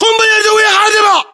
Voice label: inform attack grenade, battle chatter, english